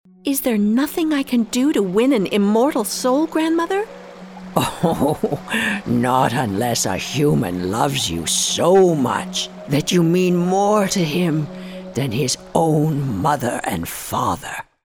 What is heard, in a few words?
Mermaid Grandma - young old